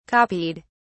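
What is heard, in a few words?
"copied", British English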